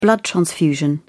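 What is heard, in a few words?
"blood transfusion", British English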